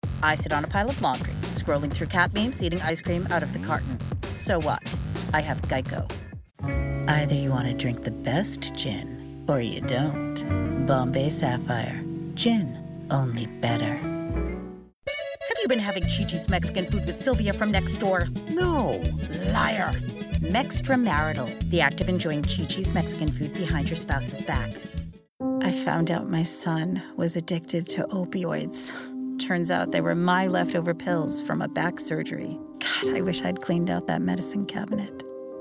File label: commercial